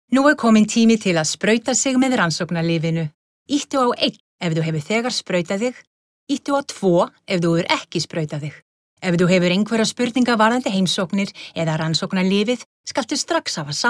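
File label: Icelandic IVR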